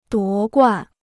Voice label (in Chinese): "夺冠", Chinese